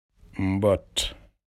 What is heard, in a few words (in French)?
Wolof Crapaud